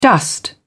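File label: "dust", British English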